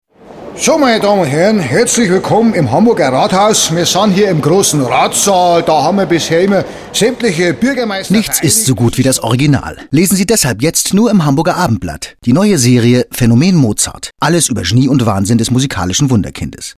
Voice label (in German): bayrisch; Bayer